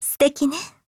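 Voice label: APPRECIATE, Japanese; eos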